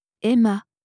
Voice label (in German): "emma", franzoesische maedchennamen